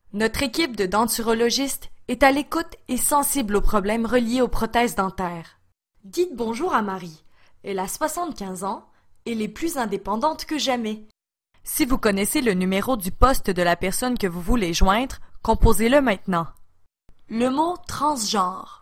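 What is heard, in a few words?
Female, French, Canada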